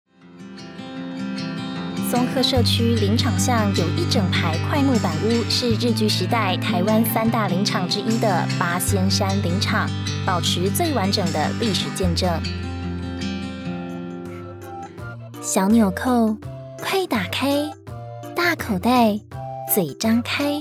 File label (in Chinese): 國語 女